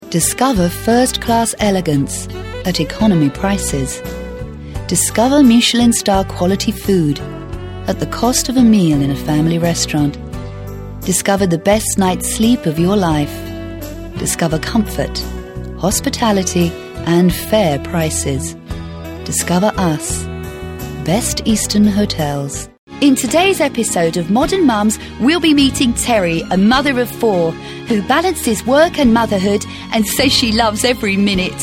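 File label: British English, TV commercial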